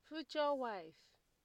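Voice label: "future wife", Nigerian Pidgin, female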